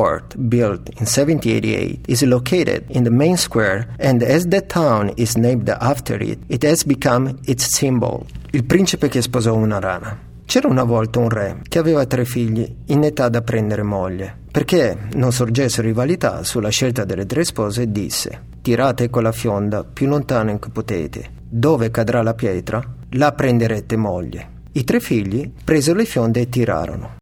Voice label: italian commercial, Commercial Voice Talent; ITALIAN » Conversational Italian narrator.